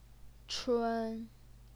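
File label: "chun", first tone, Chinese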